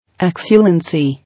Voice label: "Excellency", English